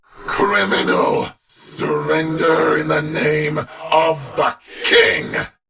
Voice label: Patrol, en; Warframe; Battle; Dax; DaxKnight